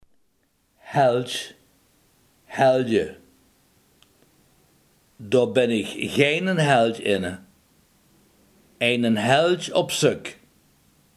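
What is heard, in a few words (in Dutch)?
held, Limburgse gesproken woordenboeken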